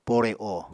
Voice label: "pore o", apatani